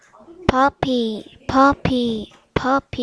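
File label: "puppy", spoken word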